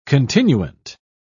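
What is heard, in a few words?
"continuant", British English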